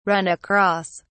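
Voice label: "run across", English, British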